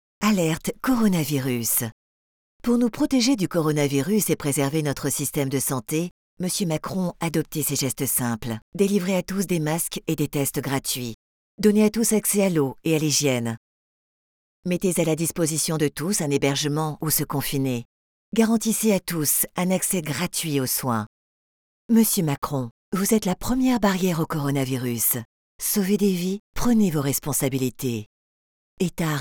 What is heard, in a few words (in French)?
Spot Radio